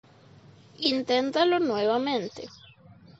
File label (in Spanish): Inténtalo nuevamente